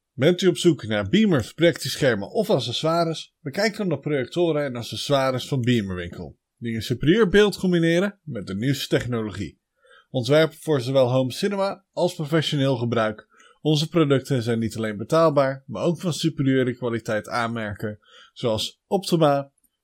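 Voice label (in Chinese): 荷兰语男_外语_小语种_样音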